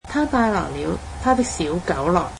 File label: "he came with his small dog", Cantonese